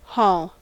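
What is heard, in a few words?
"hall", US English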